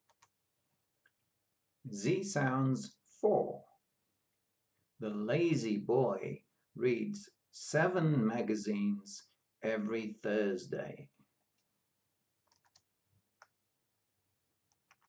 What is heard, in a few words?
Z sounds, pronunciation of australian english